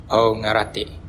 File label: understand, bonggi